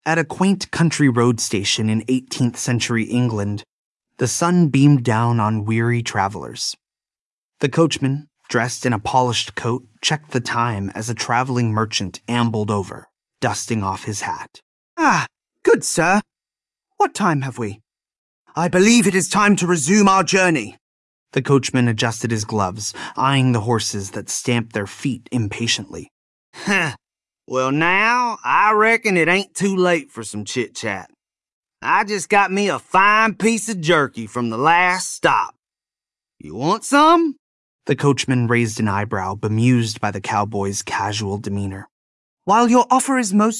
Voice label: male US story; Bell Horses